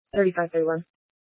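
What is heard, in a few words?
Police Dispatch, Scanner audio; Police 1 Dispatch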